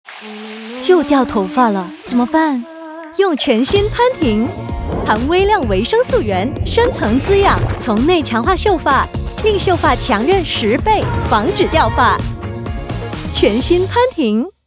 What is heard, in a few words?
Mandarin Character VO, Style: Bold and Confident; Dettol